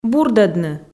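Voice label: "бурдӧдны", Komi, female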